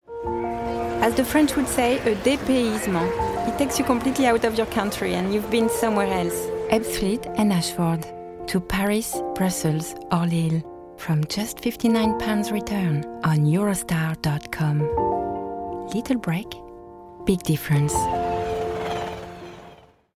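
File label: French, accented English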